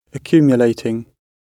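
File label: "accumulating", British English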